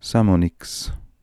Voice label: "Samoniks", pronounciations; Fourth Way; Beelzebub